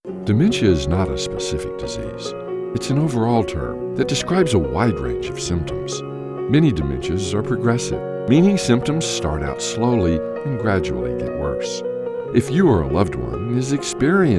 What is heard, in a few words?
Commercial